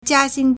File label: "jia1 xing1", Chinese